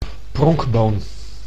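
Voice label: "pronkboon", Dutch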